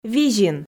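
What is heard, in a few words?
"визин", Komi, female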